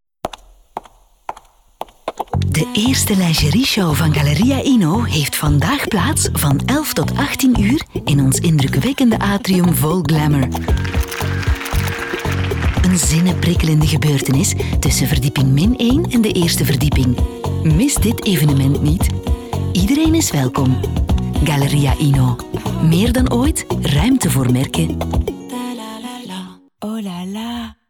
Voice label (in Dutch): soft; leise; Inno